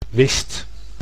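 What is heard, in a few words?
"wist", Dutch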